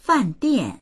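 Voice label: "fan4dian4", Mandarin Chinese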